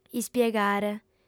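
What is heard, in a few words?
"ispiegare", female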